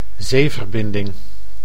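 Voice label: "zeeverbinding", Dutch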